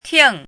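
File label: "ting4" Mandarin Chinese, falling tone; chinese-voice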